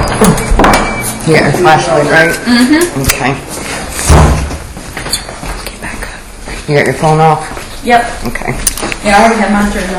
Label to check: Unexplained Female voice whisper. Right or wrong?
Right